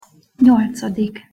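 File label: "nyolcadik", Hungarian pronunciation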